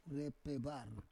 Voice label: "repevar", Roon